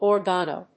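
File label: "organo", English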